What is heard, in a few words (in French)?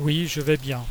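"yes I'm OK", French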